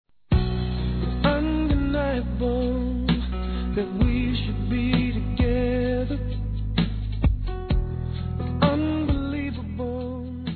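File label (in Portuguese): ROMÂNTICO SAUDADES F, Telemensagens, Aniversários, Fem; Aniv Familiar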